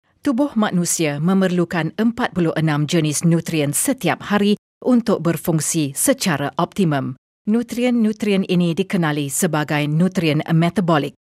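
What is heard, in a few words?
Malay female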